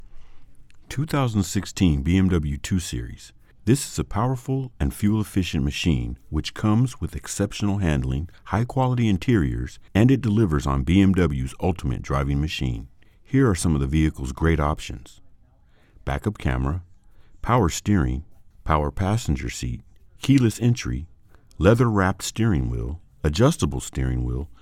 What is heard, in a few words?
mature neutral; BMW